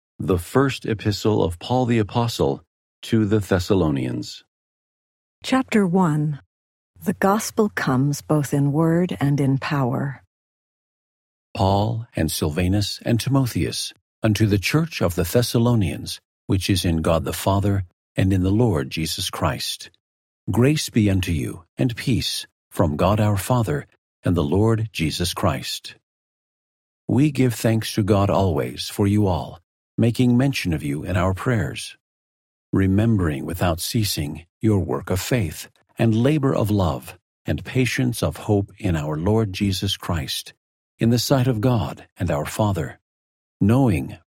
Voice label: male voice; the new testament